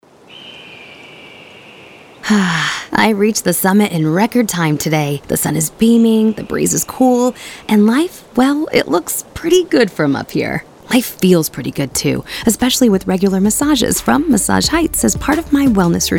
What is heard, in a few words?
Brand Radio; Massage Heights